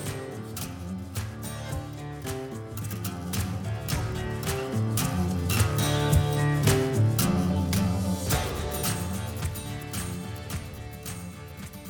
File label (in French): perruche audio enfant